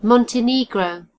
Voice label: "montenegro", en GB; gcompris